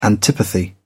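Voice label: "antipathy", British English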